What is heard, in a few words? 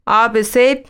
dari Apple juice